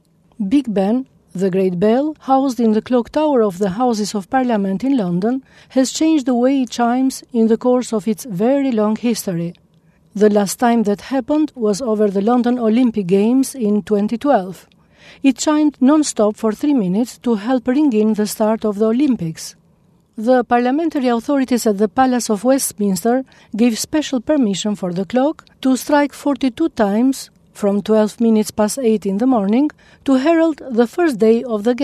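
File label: Albanian English; Albanian, Male, 20s-30s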